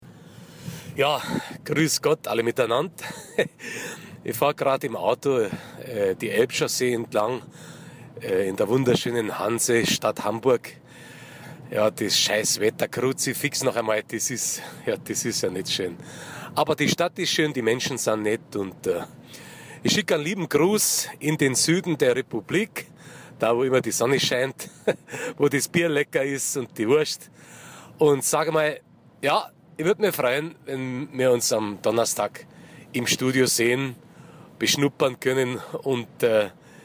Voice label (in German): Dialekt Bayrisch